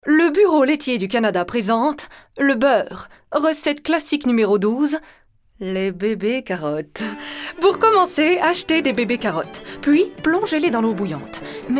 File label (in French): voix femmes; beurre